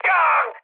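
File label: Korean damaged pilot mood low, speech; War Thunder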